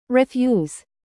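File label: "produce", verb, British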